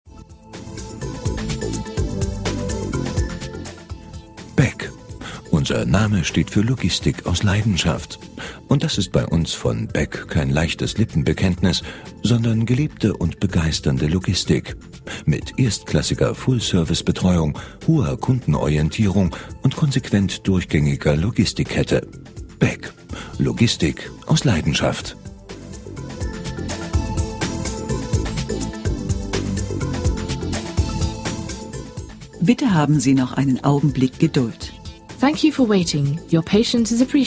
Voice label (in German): Werbespot; Beck Spedition + Logistik